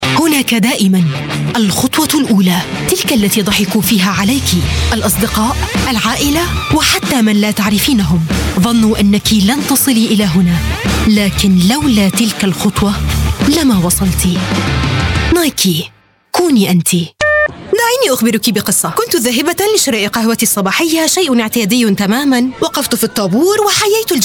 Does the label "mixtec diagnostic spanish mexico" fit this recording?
No